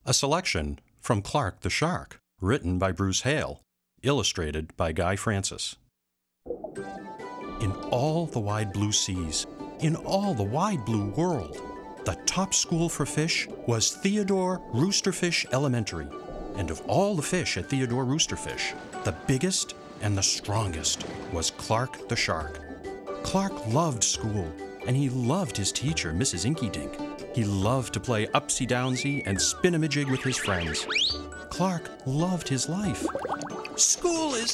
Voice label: audiobook; clark shark